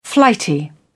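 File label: "flighty", British English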